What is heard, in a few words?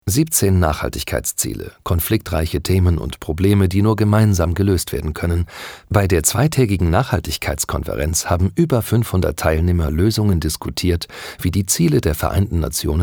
DE Podcast